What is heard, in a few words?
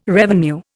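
"revenue", Indian English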